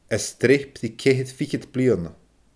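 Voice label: "a sreap ri ceithir fichead bliadhna", Scottish Gaelic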